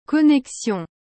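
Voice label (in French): "connexion", French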